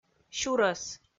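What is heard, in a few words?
"сюрӧс" Komi, female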